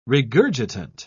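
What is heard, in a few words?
"regurgitant", British English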